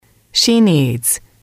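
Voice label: "she needs", English, female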